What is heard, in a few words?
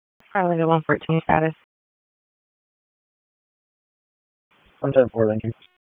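Police Southwest District Dispatch; Raleigh; wake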